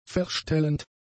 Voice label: "verstellend", German; adjectives

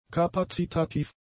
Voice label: "kapazitativ", German; adjectives

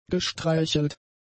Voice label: "gestreichelt", german; adjectives

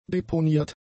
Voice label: "deponiert", german; adjectives

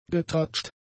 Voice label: "getratscht", german; adjectives

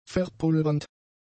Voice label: "verpulvernd", german; adjectives

